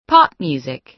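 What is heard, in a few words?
"pop music", American English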